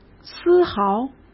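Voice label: "丝毫", Mandarin Chinese; chinese flashcards; hsk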